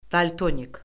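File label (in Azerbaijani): "daltonik", Azerbaijani; Orfoqrafiya Lüğəti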